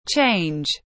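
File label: "change", English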